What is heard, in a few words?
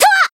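attack, Japanese; bivette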